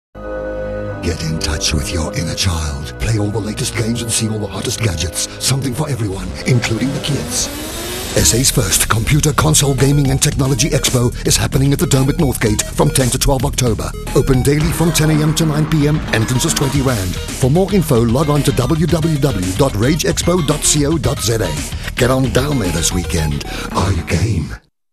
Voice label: rAdio Advert; Highveld; rAge expo 2003